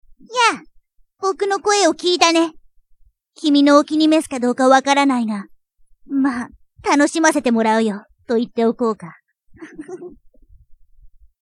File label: boy